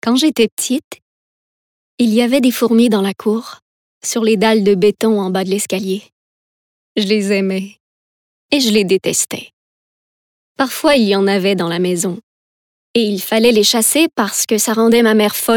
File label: narration